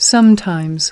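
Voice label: "sometimes", English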